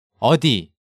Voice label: "eodi", Korean